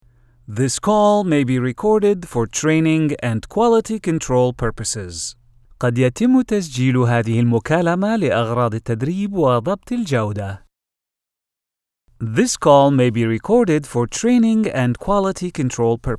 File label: English Arabic, Phone Tag